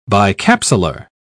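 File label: "bicapsular", British English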